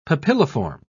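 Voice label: "papilliform", British English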